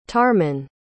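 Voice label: "tarmon", English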